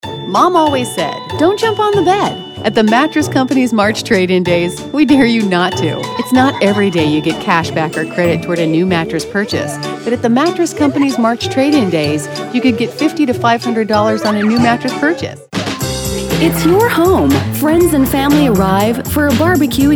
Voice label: happy, female voices for radio commercials